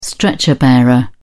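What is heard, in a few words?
"stretcher bearer", English, British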